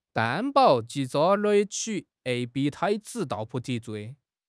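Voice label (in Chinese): "担保", Chinese; HSK6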